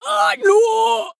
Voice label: Female Dwarf Death